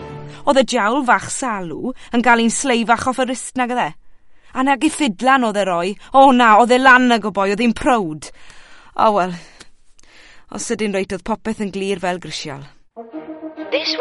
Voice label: Welsh F Character; voice-over